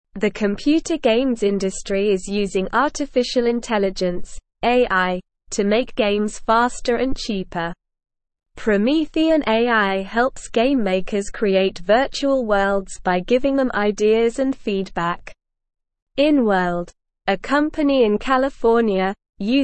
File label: English Newsroom Lower Intermediate SLOW Reading; AI Makes Games Better and More Fun